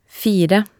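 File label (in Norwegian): "fire", Norwegian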